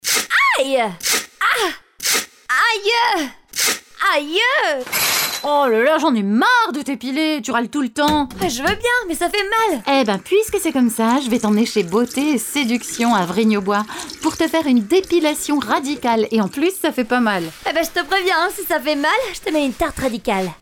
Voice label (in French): radio spot